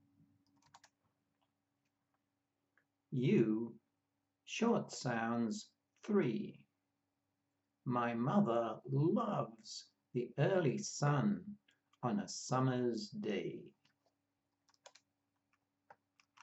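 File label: U short sounds, pronunciation of australian english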